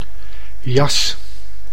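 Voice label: "jas", Dutch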